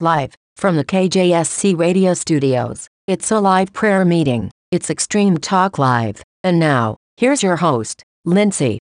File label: extreme talk live intro feemail voice